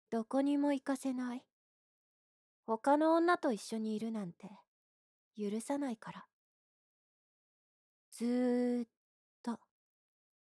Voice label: lady yandere